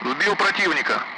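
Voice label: Speech, Russian; Allied Flight; splash bandit; DCS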